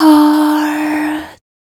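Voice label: vocal female, Dance Vocals, spoken words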